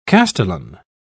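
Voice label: "castellan", British English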